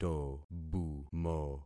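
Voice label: "tobumo", concatenated syllables; Affixing experiment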